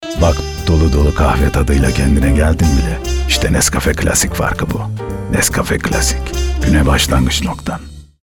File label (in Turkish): sicak samimi